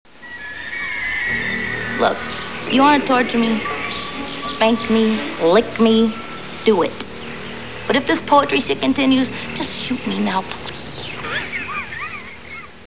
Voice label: poetry